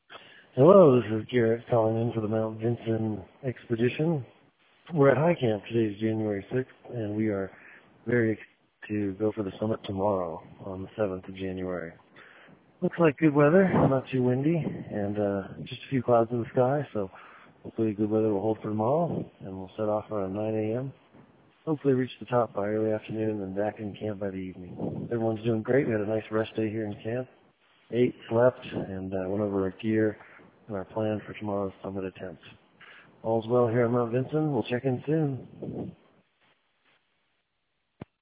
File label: voicemail